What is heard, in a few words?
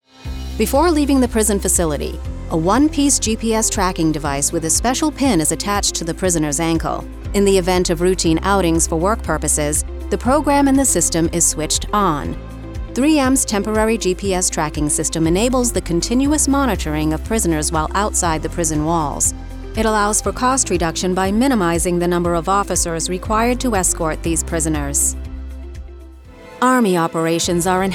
CORPORATE